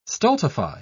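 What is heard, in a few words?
"stultify", English, uk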